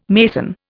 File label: "mason", Indian